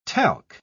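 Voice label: "talc", British English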